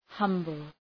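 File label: "humble", English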